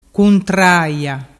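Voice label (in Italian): "cuntraia", Vernazzese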